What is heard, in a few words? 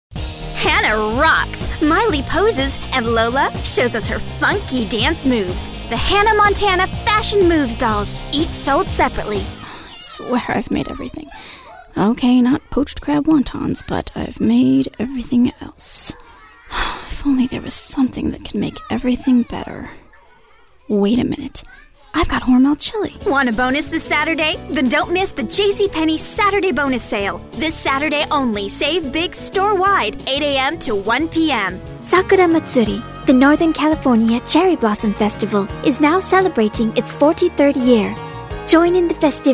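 commercial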